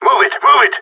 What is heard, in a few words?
move it, radio; GSG9